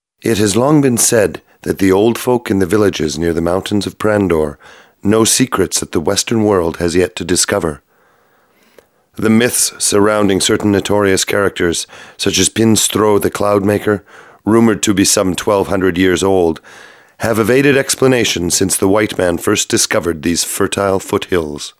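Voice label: male English documentary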